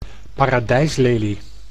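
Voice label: "paradijslelie", Dutch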